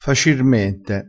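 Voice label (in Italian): "facirmente", vocabolario dialettale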